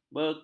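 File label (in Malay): "beku", Malay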